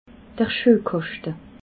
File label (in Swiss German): "schuehkaschte", alsace